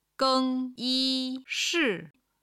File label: "geng1yi1shi4", Chinese